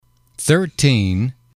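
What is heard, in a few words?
"thirteen", English, male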